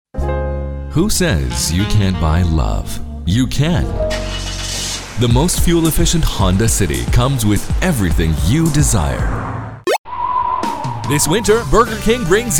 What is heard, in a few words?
English